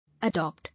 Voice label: "Adopt", English